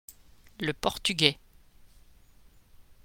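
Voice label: "le portugais", pronunciation, French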